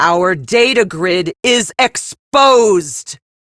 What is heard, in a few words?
Captain Female, drones destroyed, en; Warframe; Railjack; Crp Captain